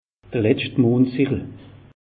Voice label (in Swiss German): "letscht", alsace; meteo